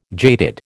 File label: "jaded", American